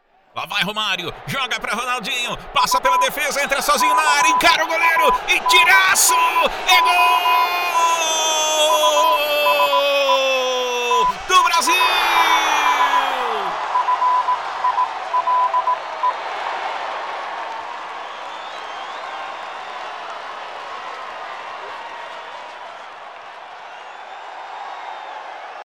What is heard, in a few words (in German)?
PT BRA Kommentar Dynamisch